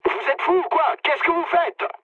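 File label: player killed ally, mood low, French; War Thunder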